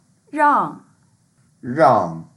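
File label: "rang4", Mandarin Chinese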